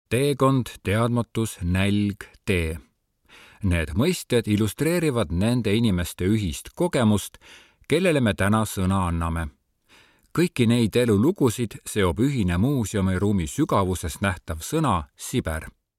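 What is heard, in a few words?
Estonian audioguide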